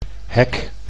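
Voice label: "hek", Dutch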